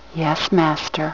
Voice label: Female voice, "Yes, Master."; replacement event sounds